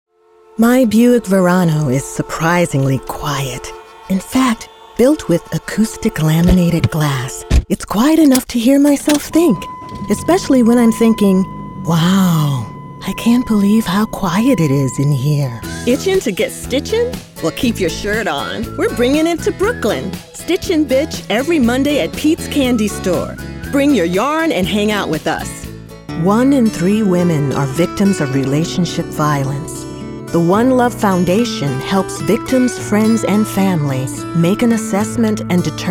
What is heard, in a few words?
commercial; Mind Honey